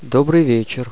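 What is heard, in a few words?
"good evening", russian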